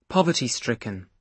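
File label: "poverty stricken", British English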